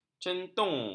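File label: "centung", Malay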